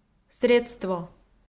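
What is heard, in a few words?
"средство", Komi, female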